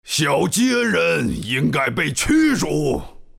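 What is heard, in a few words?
rival, chinese; dota2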